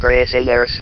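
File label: "prisoners", votrax; VIDEO GAMES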